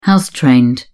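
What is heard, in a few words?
"house trained", British English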